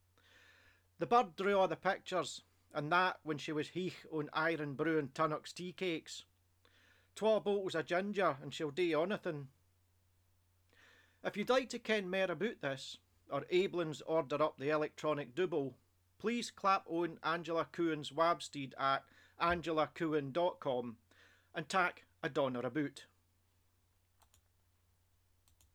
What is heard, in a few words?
Big Braw Scottish Words